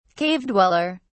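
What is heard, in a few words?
"cave dweller", British English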